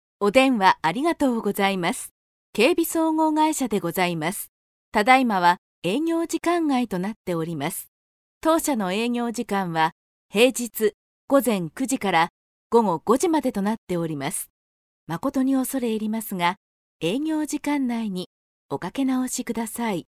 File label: female